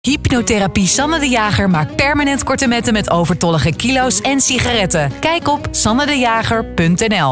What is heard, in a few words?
Commercial; RTV Oost